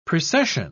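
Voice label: "precession", British English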